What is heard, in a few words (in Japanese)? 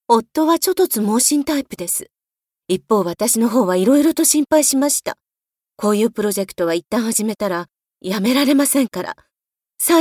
ビジネスウーマン